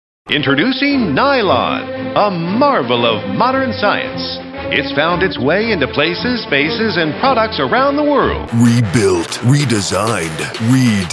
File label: Character, Character Voices